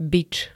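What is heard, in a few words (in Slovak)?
"bič", Slovak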